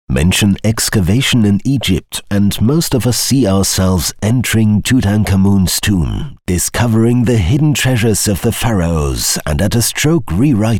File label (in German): audioguide historisch eng; Muttersprache Deutsch